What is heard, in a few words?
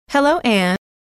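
hello, female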